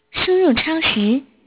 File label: Error Input, english